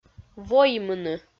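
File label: "воймыны", Komi, female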